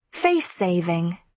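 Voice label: "face saving", British English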